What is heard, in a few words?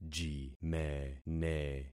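"jimene", concatenated syllables; Affixing experiment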